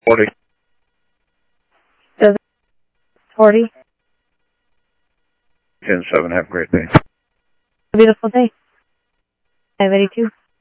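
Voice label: Sheriff East County, scanner audio trunk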